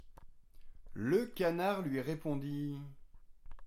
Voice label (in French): narration